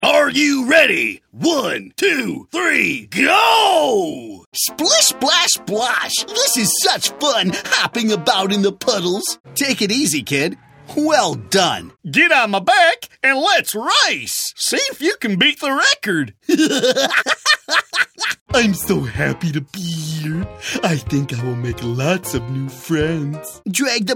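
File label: American, toys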